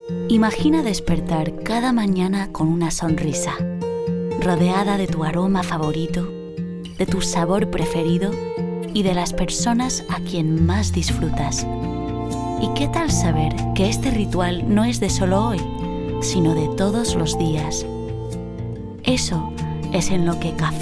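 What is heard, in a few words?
Spanish soft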